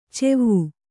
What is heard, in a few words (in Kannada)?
"ಚೆವು", kannada